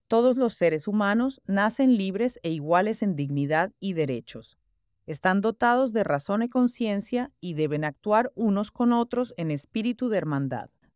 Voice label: udhr spanish; speech to speech translation